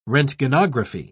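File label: "roentgenography", British English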